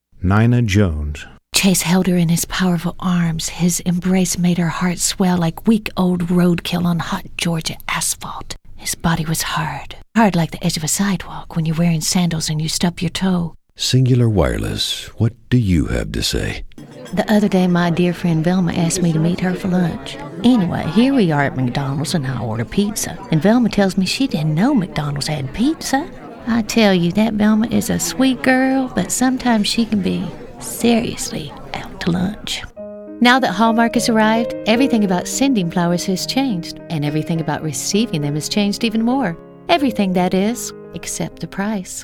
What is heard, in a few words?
Voiceover